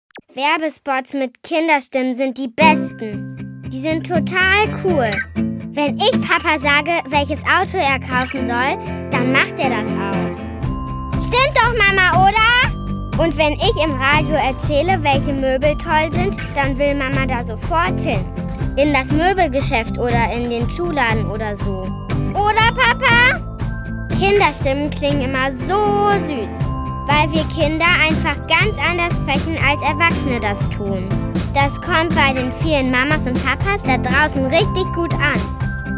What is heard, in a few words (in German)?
Werbung